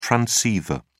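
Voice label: "transceiver", British English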